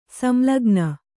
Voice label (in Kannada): "ಸಂಲಗ್ನ", Kannada